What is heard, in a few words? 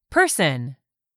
"PERSON", English; 3000 words